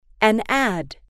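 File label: "an ad", female, English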